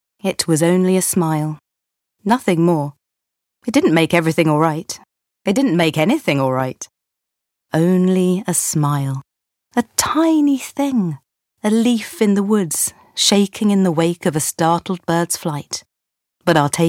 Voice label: audiobook